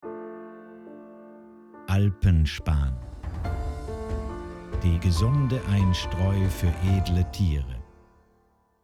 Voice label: audio slogan deutsch; alpenspan